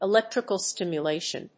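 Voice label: "Electrical stimulation", English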